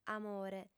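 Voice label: "amore", Sardinian, female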